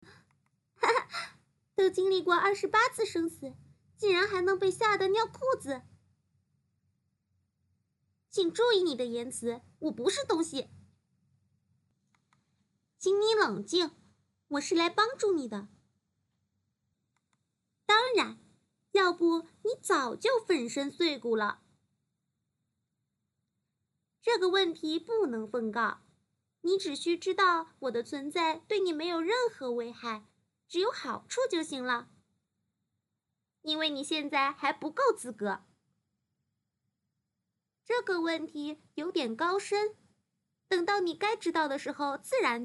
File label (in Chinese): 女播; 宇空天骄